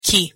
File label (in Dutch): "kī", Hawaaiaans; thee-in-andere-talen